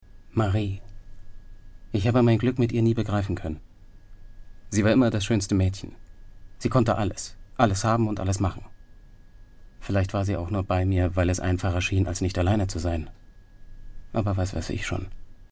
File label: VOICE OVER; LOFT VOICES